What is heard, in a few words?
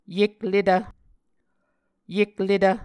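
"yekletv", Muscogee word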